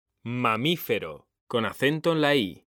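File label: "mamífero", Spanish, male; mekanta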